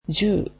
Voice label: ten, japanese